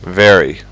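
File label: "very", English; fonetica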